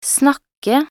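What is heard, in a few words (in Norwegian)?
"snakke", Norwegian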